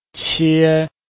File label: "qie1", Chinese